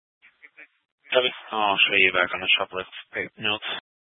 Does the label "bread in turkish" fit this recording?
No